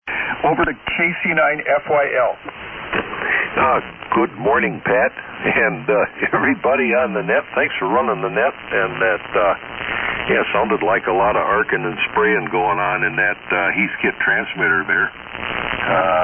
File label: Hallicrafters HT-44 HT-45 SX-117; Fort Atkinson, Wi.